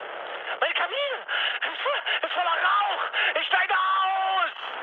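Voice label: German on fire mood high, speech; War Thunder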